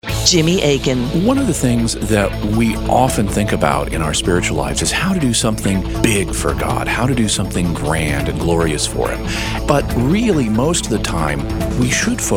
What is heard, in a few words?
Network Promo; Catholic Answers